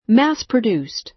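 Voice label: "mass-produced", American English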